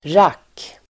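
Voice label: "rack", Swedish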